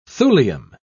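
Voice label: "thulium", British English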